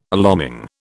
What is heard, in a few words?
"alarming", British